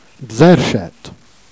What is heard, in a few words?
"erchettes", Jèrriais